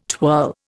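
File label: "twirl", Indian English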